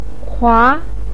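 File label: "kua", Mandarin Chinese, second tone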